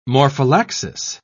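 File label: "morphallaxis", British English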